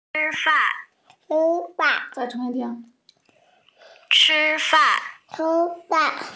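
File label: "chi1 fan4", Mandarin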